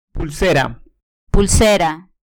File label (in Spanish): "pulsera", Spanish; Vocabulario